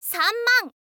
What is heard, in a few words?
"sanman", info girl voice